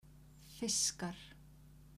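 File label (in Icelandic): "fiskar" Icelandic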